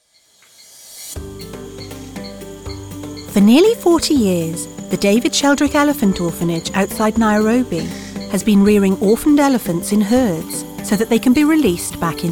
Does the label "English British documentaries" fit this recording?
Yes